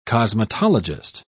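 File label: "cosmetologist", British English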